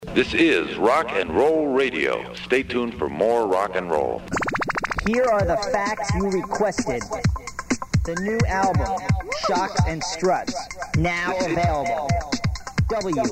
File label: Advertisement; kalx; KALX Live